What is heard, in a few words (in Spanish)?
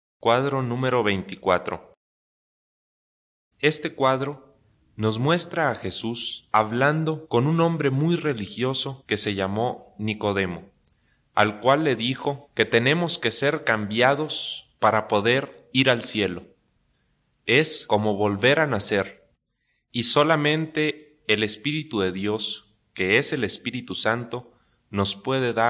good news spanish mexico